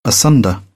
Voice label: "asunder", British; ɚ - əɹ; English

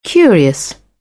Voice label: "curious", British; AmericanBritish

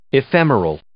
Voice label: "ephemeral", English

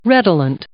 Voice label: "redolent", English

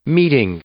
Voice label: "meeting", English; noun

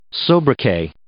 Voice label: "sobriquet", English

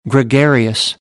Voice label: "gregarious", American; AmericanBritish

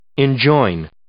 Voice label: "enjoin", English

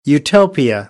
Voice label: "utopia", American English; oʊ - əʊ

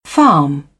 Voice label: "farm", British; English

